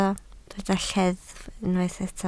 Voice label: "lleddf", Welsh